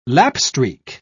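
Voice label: "lapstreak", British English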